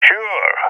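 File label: affirmation, voice, agents, balkan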